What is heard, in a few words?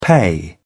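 "pay off", British English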